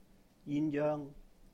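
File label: "yīn-yēung", Cantonese; mandarin duck; 鴛鴦; jyun1joeng1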